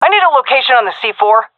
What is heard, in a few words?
query bomb, seal fem; vo; agents; Source Model Packs; Counter Strike Model Pack; CS2